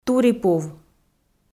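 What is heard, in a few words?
"турипув", Komi, female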